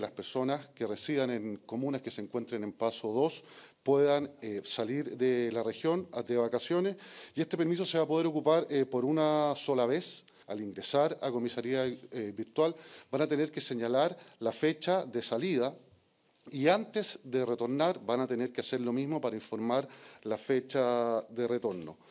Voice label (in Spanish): CUÑA